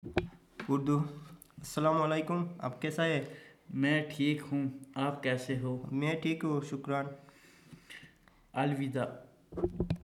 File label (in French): Ourdou